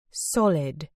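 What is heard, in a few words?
"solid", British English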